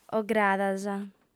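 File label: "ogradas" Sardinian, female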